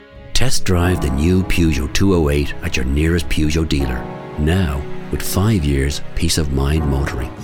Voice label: english irish automotive

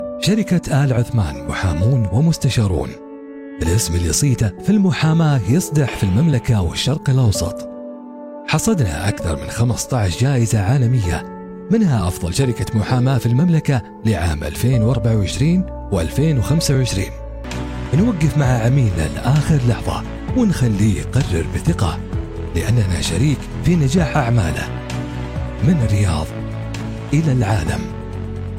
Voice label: arabic corporate videos

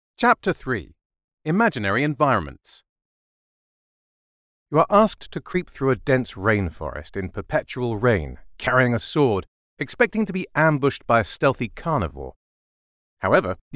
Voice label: english british audiobooks

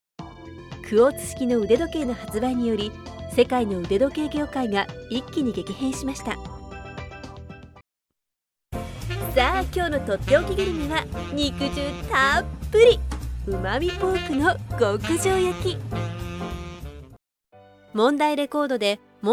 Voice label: japanese corporate

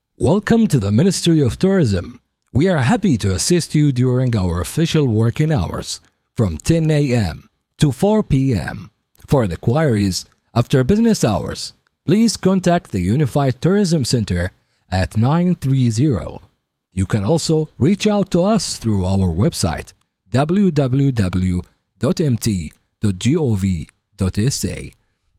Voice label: english ivr, arabic voice actors

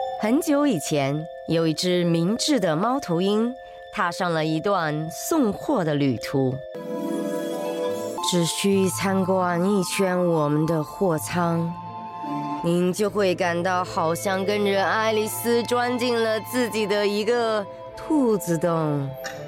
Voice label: chinese mandarin animation

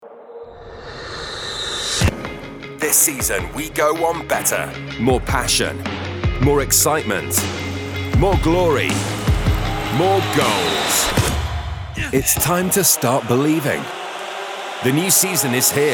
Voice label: English British promos

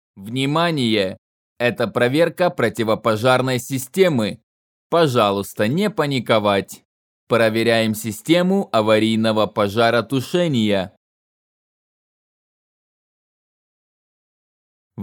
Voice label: russian others

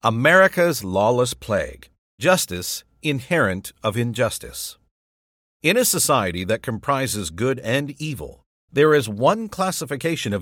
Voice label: english canadian political ads

